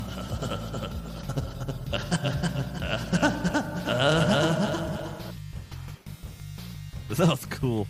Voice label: echo; beavis